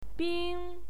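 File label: "bing", Mandarin Chinese, first tone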